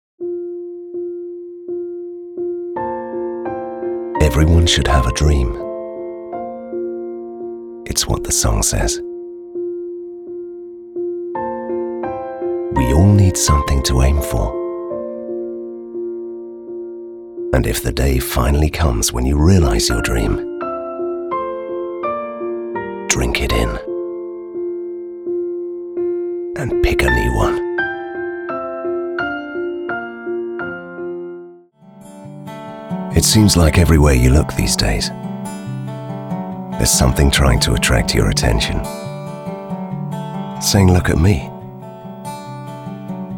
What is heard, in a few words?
tv commercial reel; 60s